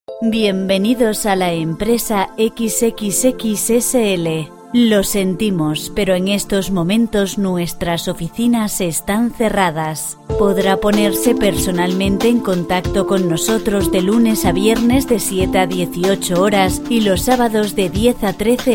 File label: esp